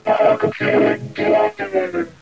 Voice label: robot voice; valve; cinima